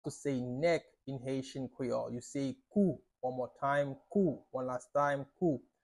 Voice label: Kou pronunciation by a native Haitian tutor, Haitian Creole; How to say Neck in Haitian Creole